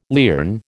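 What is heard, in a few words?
"lierne", American English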